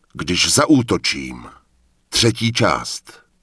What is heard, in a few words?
Night Elf Male Congratulations; wowpreklad; czwow; NightElfVocalMale